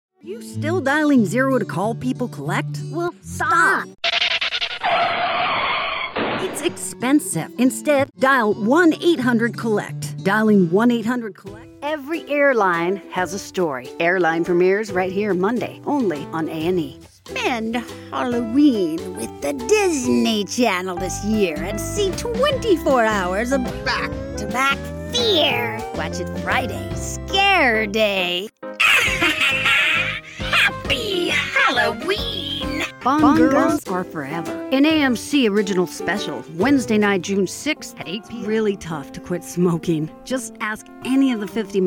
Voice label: promo